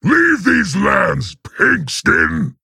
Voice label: Male Orc; Grommash; Jaina

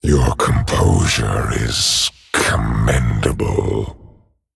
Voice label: Male Dragon Well Played

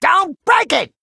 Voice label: Male Goblin Attack

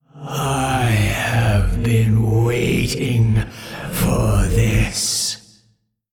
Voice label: Male Old God Bark; Cthun

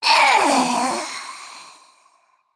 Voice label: Female Naga Death

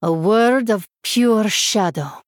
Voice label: Female Draenei Hero Power; Shadow Priest Xyrella

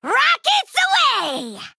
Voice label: Female Goblin Attack

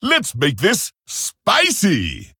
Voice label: Male Pandaren Play

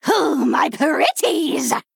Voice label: Female Troll Trigger

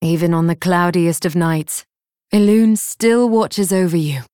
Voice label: Female Night Elf Combat Loss

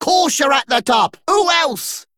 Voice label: male dredger first place

